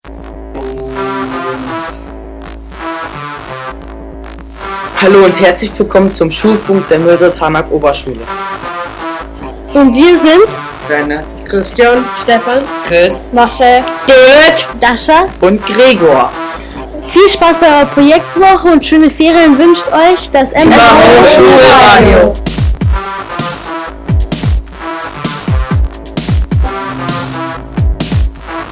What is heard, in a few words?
jingle